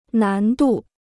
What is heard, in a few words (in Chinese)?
"难度", Chinese